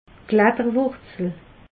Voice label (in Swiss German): "kletterwurzel", alsace